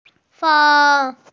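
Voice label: "feng1", Mandarin Chinese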